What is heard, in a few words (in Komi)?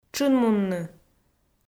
"тшынмунны", Komi